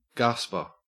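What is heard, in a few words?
"gasper", Australian English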